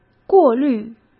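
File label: "过滤", Mandarin Chinese; chinese flashcards; hsk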